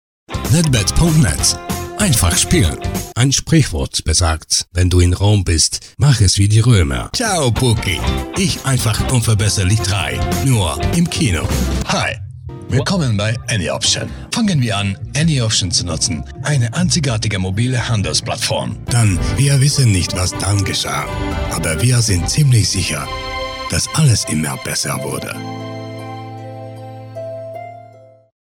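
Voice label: german commercial, Hombre; locutor húngaro; Demo comercial; locutor húngaro profesional a tiempo completo; hungarian voice actors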